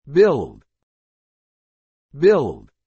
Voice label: "build", English

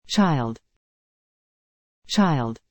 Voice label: "child", English

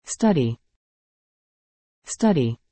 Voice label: "study", English

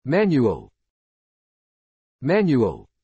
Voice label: "manual", English

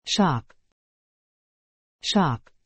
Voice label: "shop", English

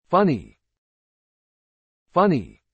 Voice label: "funny", English

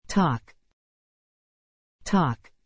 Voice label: "talk", English

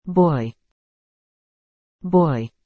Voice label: "boy", English

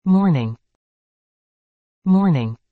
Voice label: "morning", English

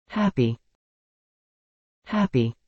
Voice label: "happy", English

